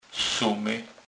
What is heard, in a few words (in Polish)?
"sumy", kurpie